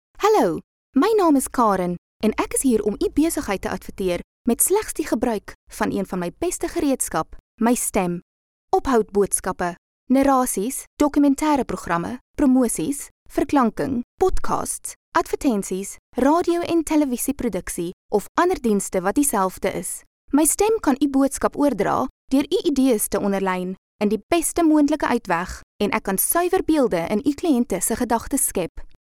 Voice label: Afrikaans